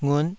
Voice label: "ngut5", taiwanese syllables